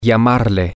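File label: to call him her you, Spanish, male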